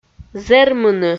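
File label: "зэрмыны", Komi, female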